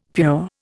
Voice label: "pure", Indian; Dictionary